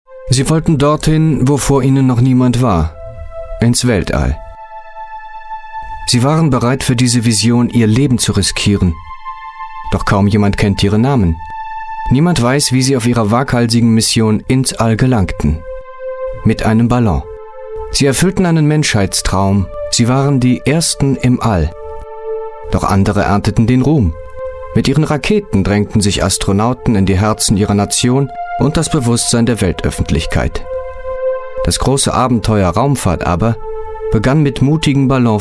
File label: german voice over male documentary